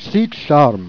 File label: "j'sis d'charme", Jèrriais